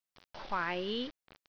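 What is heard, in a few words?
"kwai5", Cantonese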